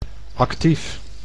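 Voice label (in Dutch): "active", Dutch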